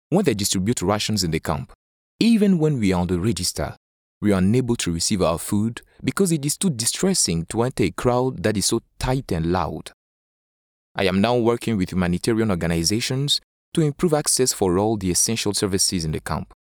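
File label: African English, male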